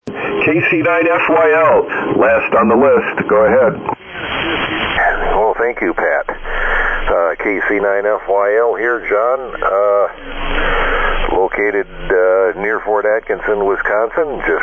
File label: Collins S-Line 75S-1 32S-1 10D-A Mic & 30L-1 Amp; Fort Atkinson, Wi.